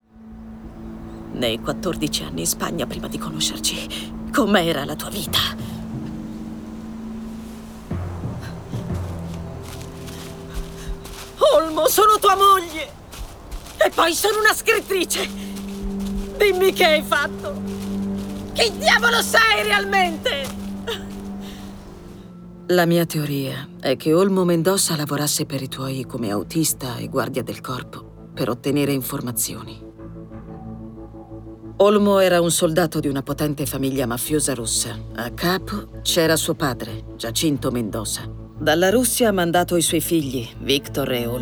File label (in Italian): film doppiaggio